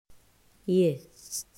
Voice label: pronunciacion fonema y; mausschool

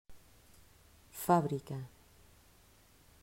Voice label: "Fabrica", pronunciacion; mausschool

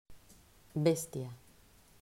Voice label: "Bestia", pronunciacion; mausschool

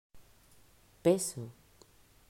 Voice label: "Peso", pronunciacion; mausschool

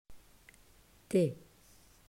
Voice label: pronunciacion fonema t; mausschool

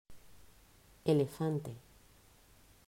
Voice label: "Elefante", pronunciacion; mausschool

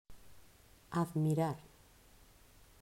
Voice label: "Admirar", pronunciacion; mausschool

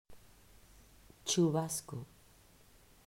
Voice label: "Chubasco", pronunciacion; mausschool

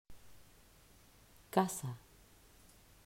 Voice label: "Casa", pronunciacion; mausschool

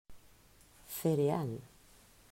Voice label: "Cereal", pronunciacion; mausschool

